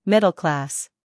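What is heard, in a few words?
"scandal", American; middle-class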